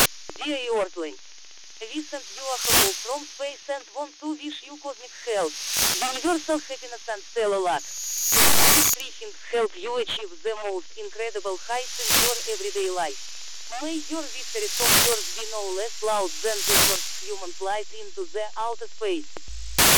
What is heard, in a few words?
female